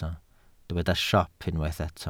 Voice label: "siop", Welsh

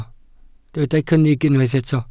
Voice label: "cynnig", welsh

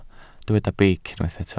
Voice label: "beic", Welsh

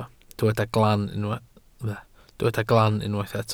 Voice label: "glan", Welsh

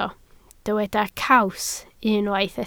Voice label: "caws", Welsh

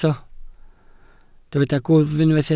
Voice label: "gwddf", Welsh

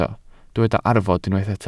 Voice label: "arfod", Welsh

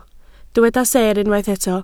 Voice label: "seer", Welsh

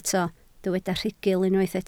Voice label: "rhugl", Welsh

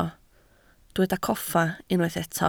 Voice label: "coffa", Welsh

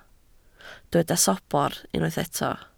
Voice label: "sobr", Welsh

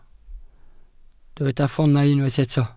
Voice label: "ffoonau", Welsh

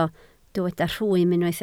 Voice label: "rhwym", Welsh

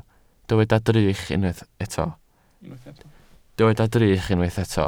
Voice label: "drych", Welsh

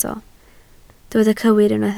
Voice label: "cywir", Welsh

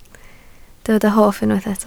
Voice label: "hoff", Welsh

